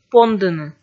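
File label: "пондыны", Komi, female; kpv audio dict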